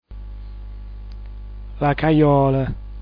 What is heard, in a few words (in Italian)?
"lacajol", dialetto giulianova